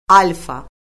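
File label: "alfa", Azerbaijani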